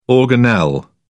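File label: "organelle", British English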